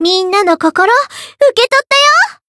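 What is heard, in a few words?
win, Japanese; melody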